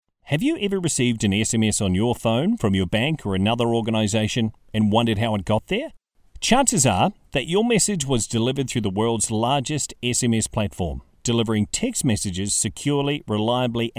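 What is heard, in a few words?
English New Zealand, male, voice over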